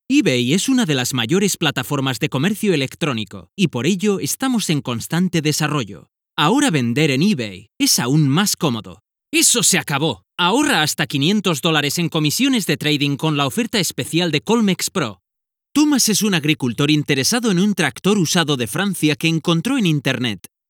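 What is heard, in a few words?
European Spanish, Narrative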